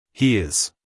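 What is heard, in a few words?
"He is", American English, male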